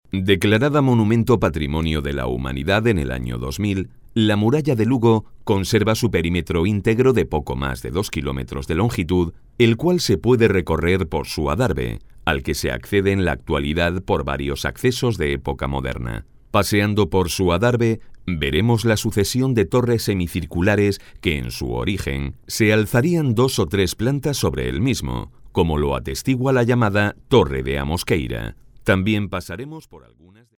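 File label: Spanish Spain, male, voice over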